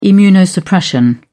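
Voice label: "immunosuppression", British English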